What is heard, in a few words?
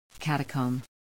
"catacomb", English